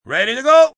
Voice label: Ready To Go, Film, movie, direction, assistant director, male 'Ready to go, (human voice speaking); Talking Sound Effects